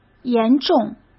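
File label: "严重", Mandarin Chinese; chinese-flashcards; hsk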